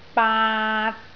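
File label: "baat3", Cantonese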